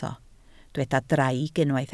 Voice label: "draig", Welsh